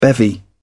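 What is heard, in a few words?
"bevy", British English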